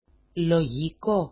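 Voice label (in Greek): "lojiko", Greek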